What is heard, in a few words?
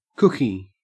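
"cookie", Australian English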